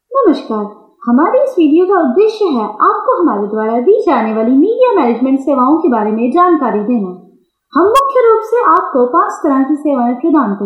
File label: Hindi